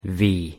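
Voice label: "v", English; pronunciation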